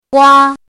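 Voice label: "guā", Chinese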